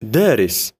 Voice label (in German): "daris", arabische jungennamen